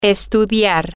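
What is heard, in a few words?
to study, spanish, female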